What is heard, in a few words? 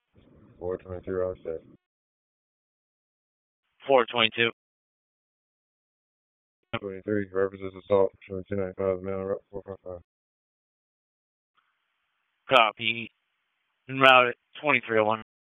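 Raleigh Police Southeast District Dispatch, p25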